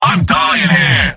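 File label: help me, robot; mvm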